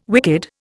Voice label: "wicked", Indian

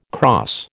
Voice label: "crosse", American

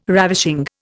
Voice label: "ravishing", Indian

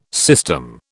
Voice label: "system", British

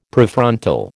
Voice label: "Prefrontal", American

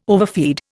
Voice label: "overfeed", Indian

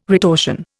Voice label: "retortion", Indian

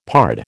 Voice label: "pard", American

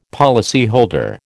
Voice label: "policyholder", American

